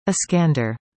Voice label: "Iskander", english; indonesian boy names